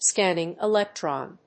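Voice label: "scanning electron", English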